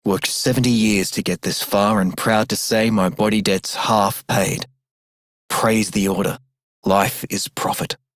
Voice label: Male Worker, en; Dialog; Warframe; Solaris Venus

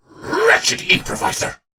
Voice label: Attack, en; Warframe; Battle; Dax; DaxKnight

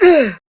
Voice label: Death, Grineer Queens Guards, Battle Barks, en; Warframe

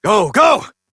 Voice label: Horse Sprint Adult; Duviri

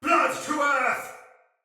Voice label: Pre Death Soldier Male; Battle; Barks; Dialog; Sinecure Soldier Male A

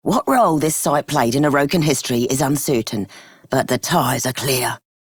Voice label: Female Scum, en; Dialog; Warframe; Solaris Venus

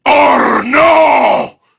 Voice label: Prime Grineer Male; Battle Barks; en; Tau

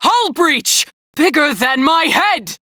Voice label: Crew See Breach, Female; Dialog; en; Warframe; Railjack Crew; New Loka

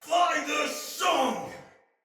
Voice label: Attack Heavy Soldier Male; Sinecure Soldier Male B

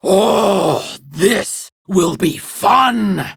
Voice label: Attack Prime Grineer Female; Tau; Battle Barks

